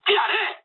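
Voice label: Japanese attack position mood med; War Thunder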